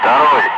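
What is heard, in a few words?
Russian bright; Ka-50; Wingman; DCS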